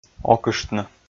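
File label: "окыштны", Komi, male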